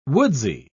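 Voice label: "woodsy", British English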